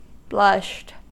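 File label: "blushed", English, American accent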